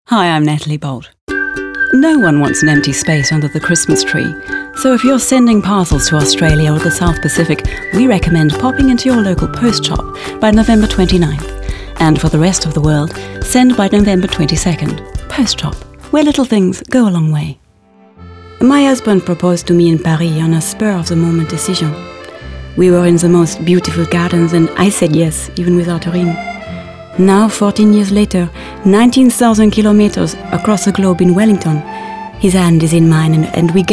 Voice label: accents